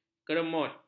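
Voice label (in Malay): "keremut", Malay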